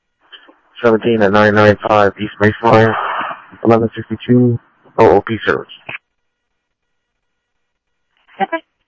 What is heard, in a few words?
Tempe Police, scanner audio; TEMPE PD A04